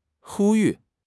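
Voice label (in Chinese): "呼吁", Chinese; HSK6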